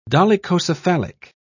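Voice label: "dolichocephalic", British English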